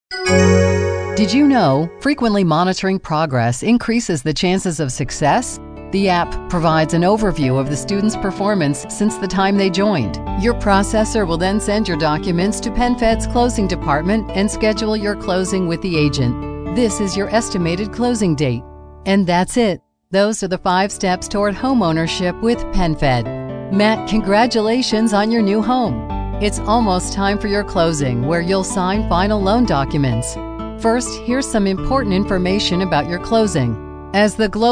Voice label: english american e-learning